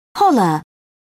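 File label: "holler", British English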